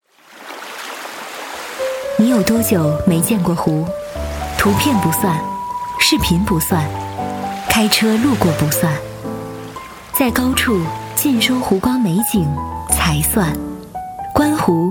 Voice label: 女; 彝海北岸蓝湾女成品

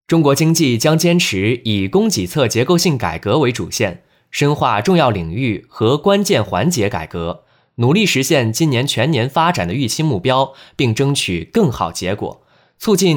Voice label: 新闻

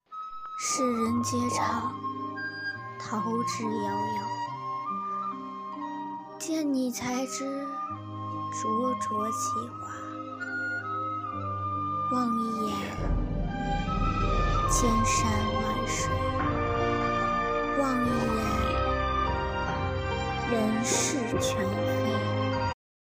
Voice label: 温柔

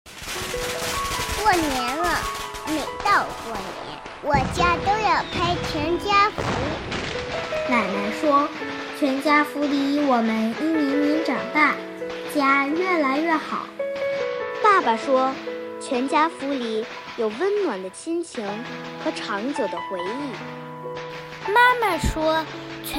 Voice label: 青春温暖; CCTV-少儿频道

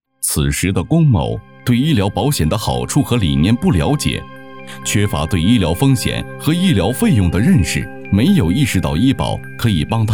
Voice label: 警示纪录

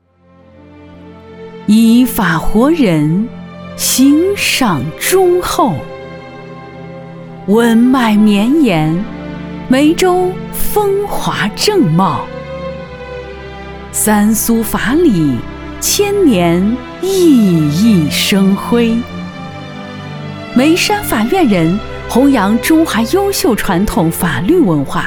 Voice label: 朗诵诗歌朗诵

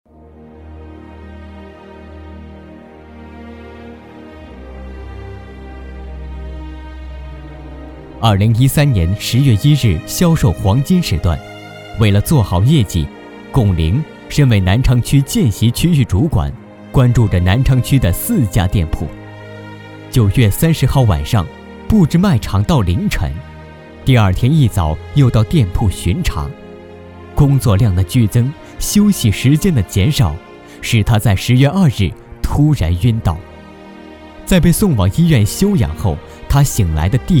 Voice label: 专题-颁奖-大气浑厚音乐

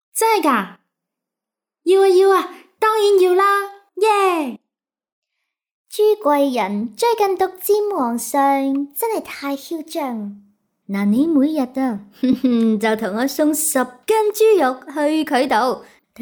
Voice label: 动画广告配音; 猪贵人

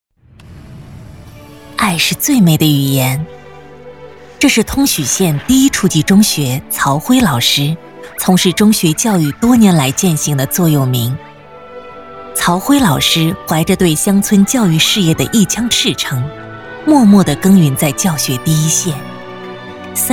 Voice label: 专题片人物解说

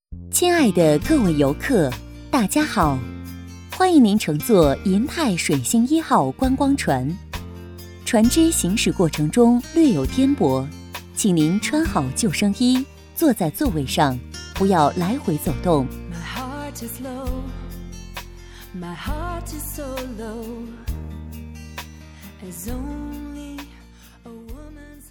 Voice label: 专题游艇提示亲切温暖

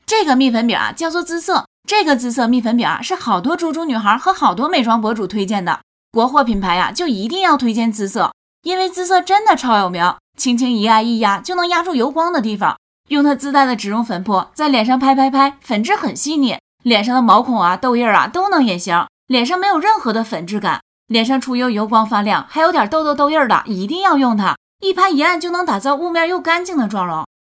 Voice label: 女卖货

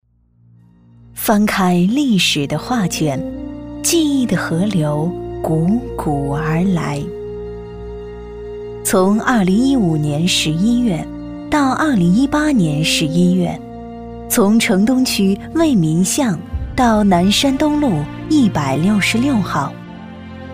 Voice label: 一周年女样

